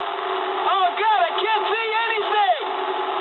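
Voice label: English searchlite mood high; speech; War Thunder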